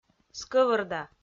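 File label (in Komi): "скӧвӧрда", Komi-Zyrian, female; kpv audio dict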